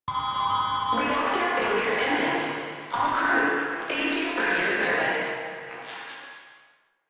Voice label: Ship Voice; Protea Quest; Corpus Ship Voice